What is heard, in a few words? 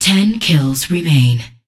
Voices Announcer Status; unreal development kit